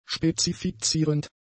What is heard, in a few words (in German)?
"spezifizierend", german; adjectives